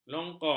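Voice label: "kelongkong", Malay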